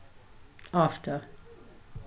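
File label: "after", British, female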